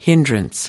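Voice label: "hindrance", American English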